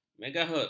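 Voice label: "megahertz", Malay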